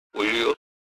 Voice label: logo Japanese voice; Pikmin Nintendo